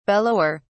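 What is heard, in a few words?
"bellower", British English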